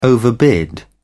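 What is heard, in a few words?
"overbid", British English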